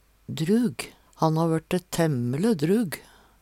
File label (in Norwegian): drug, Numedalsmål; Adjektiv